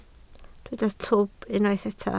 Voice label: "twp", Welsh

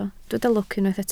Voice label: "lwc", Welsh

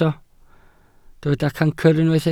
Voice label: "cancr", Welsh

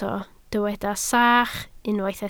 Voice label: "sach", Welsh

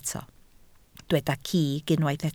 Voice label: "cig", Welsh

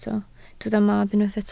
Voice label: "mab", Welsh

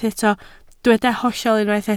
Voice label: "hollol", Welsh

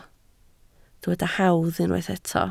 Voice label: "hawdd", Welsh

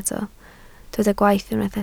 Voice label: "gwaith", Welsh

